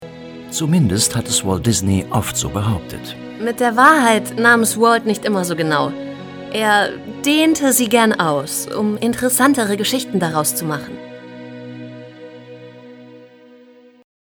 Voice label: S Fremdtake, Freundich

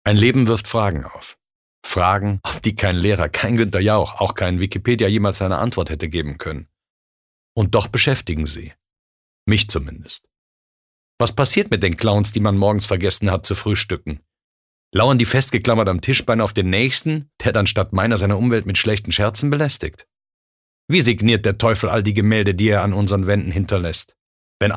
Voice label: Schauspiel, Neutral

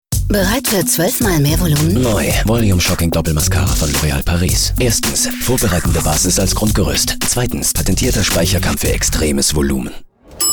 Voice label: Werbung Sanft

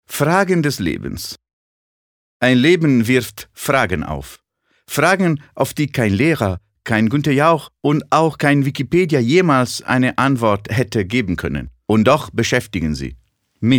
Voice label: Akzent Italienisch

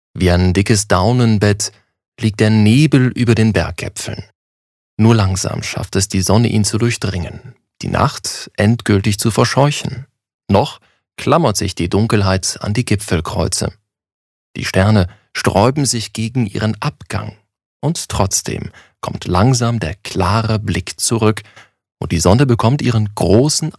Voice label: Schauspiel Poetisch

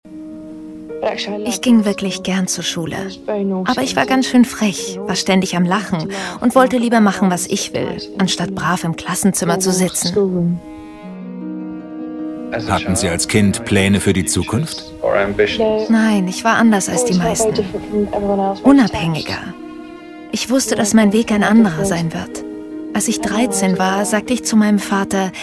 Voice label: Fremdtake Dynamisch

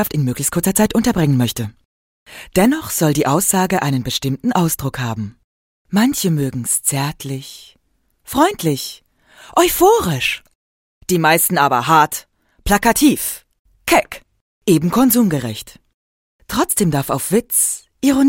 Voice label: Werbung, Variabel

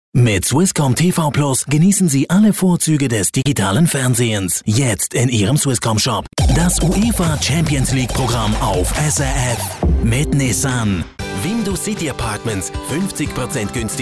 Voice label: Dialekt Mix

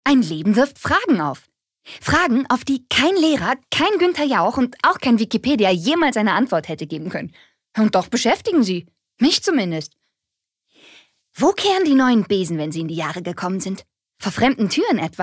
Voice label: Schauspiel Dynamisch

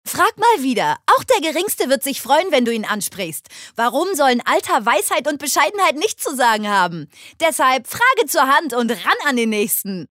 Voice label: Werbung Energisch

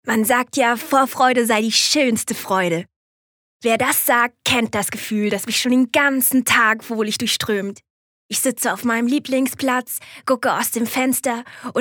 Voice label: Lebendig; KleineFreuden

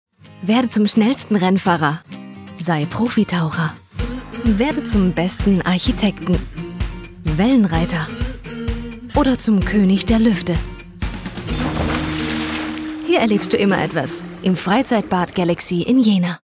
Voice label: Freundlich

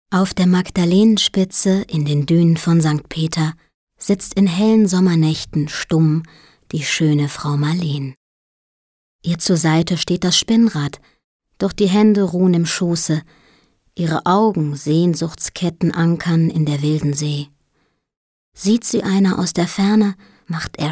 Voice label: Schauspiel Sanft

